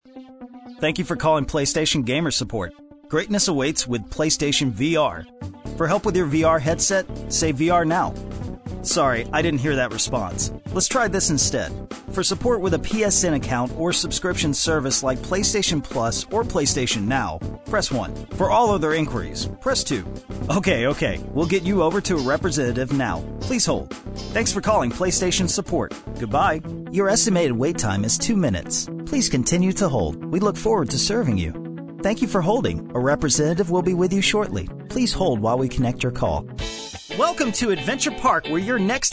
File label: english american ivr; Sou um dublador localizado na área metropolitana de Los Angeles